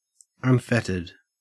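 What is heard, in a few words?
"unfettered", Australian English; pronunciations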